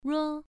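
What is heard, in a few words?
"ruo" Mandarin Chinese, first tone, pronunciation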